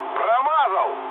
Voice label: bomb failure, mood low, speech ru; War Thunder

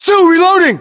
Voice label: inform reloading, US

